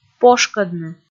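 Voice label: "пошкӧдны", Komi, female; kpv audio dict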